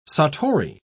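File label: "satori", British English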